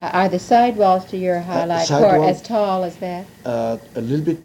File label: Oral history with 74 year old white male Mesa County City Colorado, american english dialect recordings